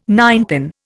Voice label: "ninepin", Indian